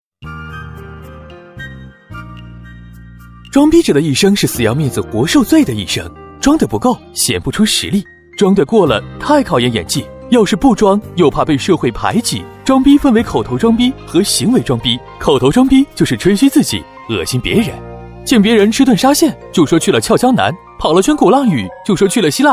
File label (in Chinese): 男 飞碟说; 装逼进化史